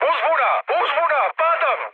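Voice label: Serbian aircraft destroyed mood high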